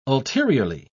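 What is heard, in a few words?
"ulteriorly", British English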